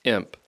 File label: "imp", English; Speech Pro